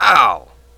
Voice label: Hurt; OpenClonk